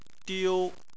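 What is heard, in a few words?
"diu" first tone, Chinese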